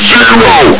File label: "zero!", Combine soldier; hl2